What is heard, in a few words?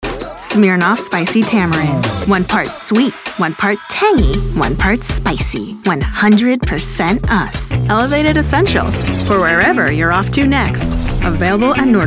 COMMERCIAL